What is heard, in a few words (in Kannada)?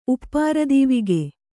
"ಉಪ್ಪಾರದೀವಿಗೆ", Kannada